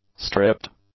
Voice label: "stripped", English; adjectives

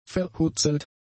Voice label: "verhutzelt", German; adjectives

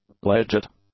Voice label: "legit", english; adjectives

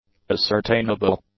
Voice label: "ascertainable", English; adjectives

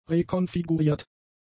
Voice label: "rekonfiguriert", German; adjectives

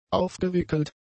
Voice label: "aufgewickelt", German; adjectives

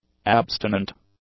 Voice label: "abstinent", english; adjectives

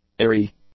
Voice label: "eerie", English; adjectives

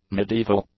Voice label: "medieval", English; adjectives

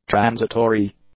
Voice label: "transitory", English; adjectives

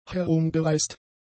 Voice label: "herumgereist", German; adjectives

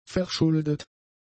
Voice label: "verschuldet", German; adjectives

